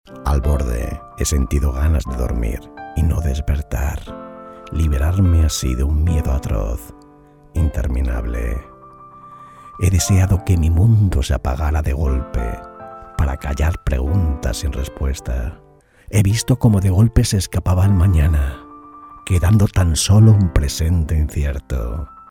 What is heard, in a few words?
spanish m commercial, spanish voice demos